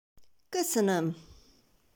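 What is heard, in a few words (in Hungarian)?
"Koeszoenoem", Hungarian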